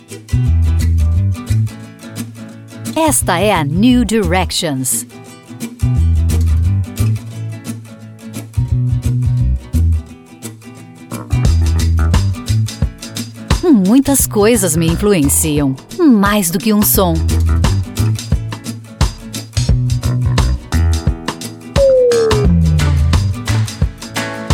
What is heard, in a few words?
portuguese brazilian radio imaging